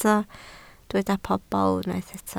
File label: "pobl", welsh